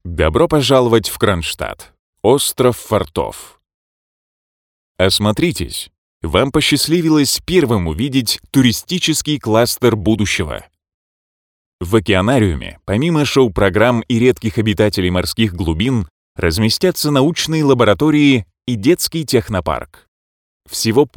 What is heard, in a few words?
rus